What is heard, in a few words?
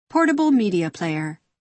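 "portable media player", American English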